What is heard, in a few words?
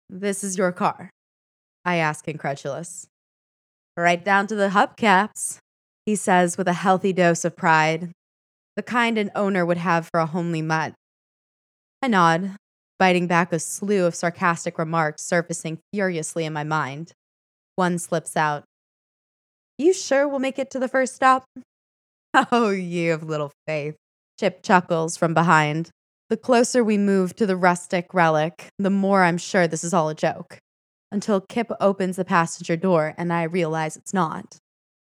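Female Male voice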